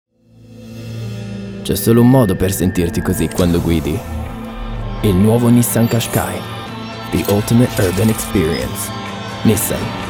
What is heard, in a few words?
Voicereel - Italian